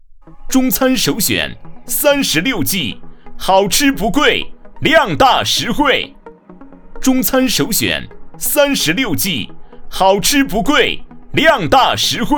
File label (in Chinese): 中餐首选促销广告